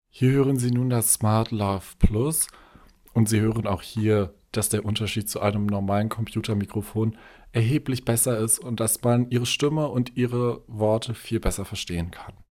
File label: rode smartlav